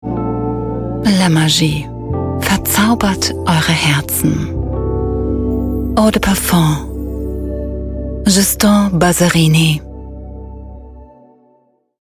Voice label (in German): Werbung Eau de Parfum; JB